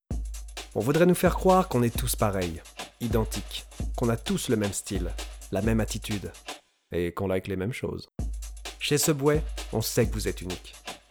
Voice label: French Commercial